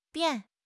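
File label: "bian4", Chinese; HSK4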